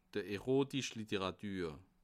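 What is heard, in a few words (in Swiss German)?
"erotische", Bas Rhin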